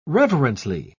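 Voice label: "reverently", British English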